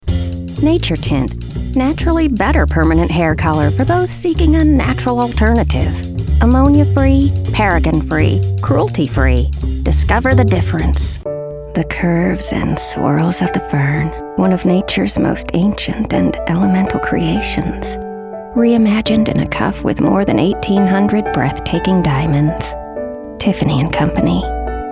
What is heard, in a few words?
Commercial